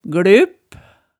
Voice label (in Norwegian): "gløp", hemsedalsmaalet